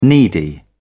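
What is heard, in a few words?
"needy", British English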